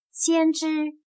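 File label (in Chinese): "先知" Mandarin Chinese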